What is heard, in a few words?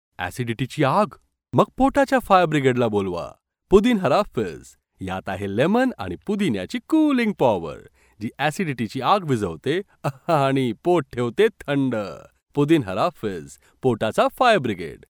male marathi voice over talent sales